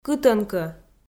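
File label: "кытӧнкӧ", Komi-Zyrian, female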